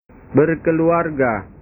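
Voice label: "berkeluarga", Indonesian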